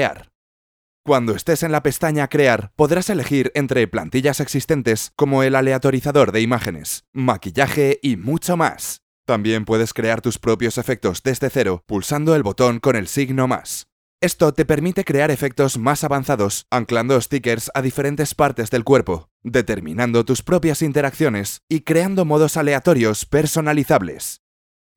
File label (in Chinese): TikTok(操作说明 欢快)